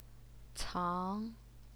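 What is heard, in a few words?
"cang", Mandarin Chinese, second tone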